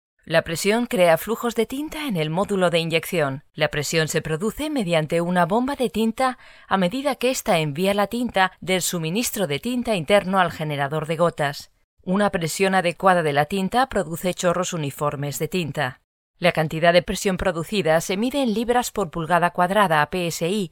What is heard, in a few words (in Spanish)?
es elearning; Kodak